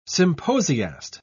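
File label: "symposiast", English, British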